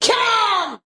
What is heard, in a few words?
"cmon" (thick n throaty); single hits